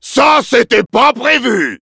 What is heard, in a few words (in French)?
autodejectedtie, fr; Demoman